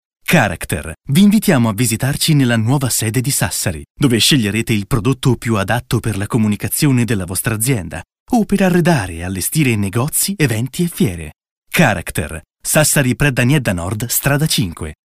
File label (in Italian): Spot Istituzionale; Character; Stampa Digitale Ecologica; SASSARI